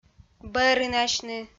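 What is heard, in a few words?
"бӧрынасьны", Komi, female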